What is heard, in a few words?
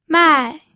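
"mai", fourth tone, pinyin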